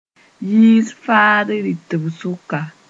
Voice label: "yiis faad rɩtb sʋka", Mooré

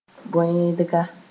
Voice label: "bõeedga", Mooré

